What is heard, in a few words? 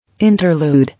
"Interlude", English